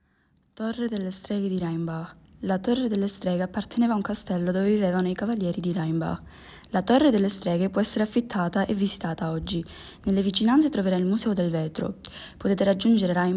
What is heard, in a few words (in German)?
italienisch; Hexenturm